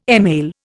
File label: "email", Indian